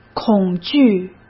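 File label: "恐惧", Mandarin Chinese; hsk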